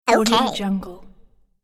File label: cartoon character ok